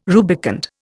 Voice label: "rubicund", Indian

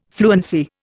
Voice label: "fluency", Indian

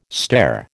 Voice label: "stare", American

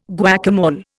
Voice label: "guacamole", Indian

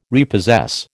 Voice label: "repossess", American

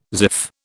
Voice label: "ziff", British

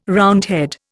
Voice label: "Roundhead", Indian

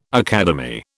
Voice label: "academy", British

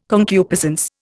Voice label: "concupiscence", Indian

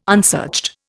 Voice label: "unsearched", Indian

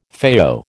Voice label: "FAO", American

